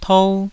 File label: "tou2", Taiwanese